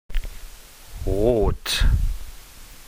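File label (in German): "rot", German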